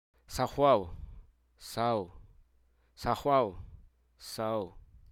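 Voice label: Hruso Aka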